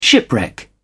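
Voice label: "shipwreck", British English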